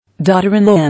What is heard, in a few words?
"Daughter-in-law", English